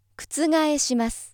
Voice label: female